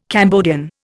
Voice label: "Cambodian", Indian